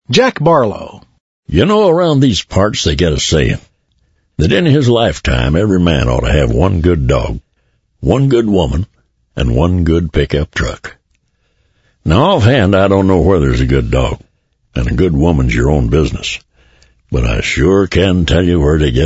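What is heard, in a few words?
Male Talent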